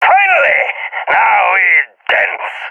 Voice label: balkan attacking; vo; balkan epic